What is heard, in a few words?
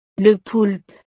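"le poulpe", French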